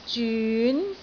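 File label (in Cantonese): "zyun2", Cantonese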